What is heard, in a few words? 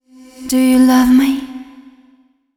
fem spo, Vocals; Mutekki Media; Diamond Vocals 2